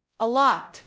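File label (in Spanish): Voice Acting, es, female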